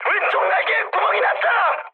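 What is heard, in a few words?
Korean left wing mood high, speech; War Thunder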